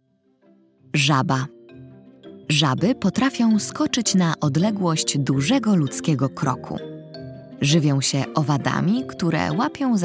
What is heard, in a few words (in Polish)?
narracja; czasopismo mis; wiosna